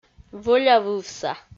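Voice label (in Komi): "вӧлявывса", Komi-Zyrian, female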